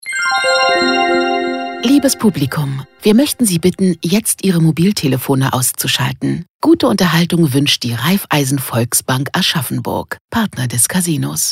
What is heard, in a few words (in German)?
Ansage Kino